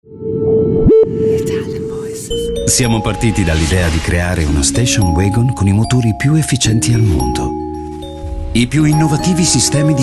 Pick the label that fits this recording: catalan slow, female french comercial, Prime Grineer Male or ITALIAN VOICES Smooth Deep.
ITALIAN VOICES Smooth Deep